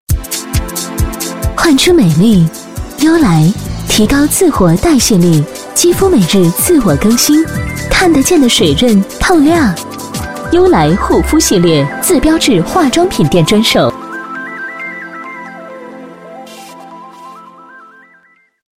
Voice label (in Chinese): 国语女声配音，广告 化妆品 年轻时尚